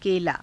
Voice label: "kelaa", Hindi

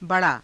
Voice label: "ba raa", hindi

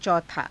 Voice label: "cauthaa", Hindi

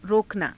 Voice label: "rokanaa", Hindi

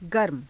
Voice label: "garma", Hindi

